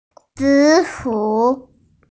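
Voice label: "purple sweet potato", Mandarin, hearing-impaired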